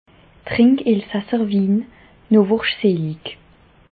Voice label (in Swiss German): "trink", alsace